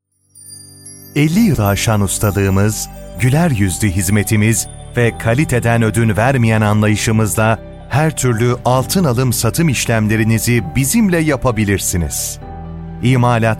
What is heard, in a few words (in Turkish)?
kuyumculuk reklam; has